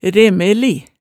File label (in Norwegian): "remeli", Hemsedal